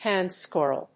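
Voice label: "Handscroll", English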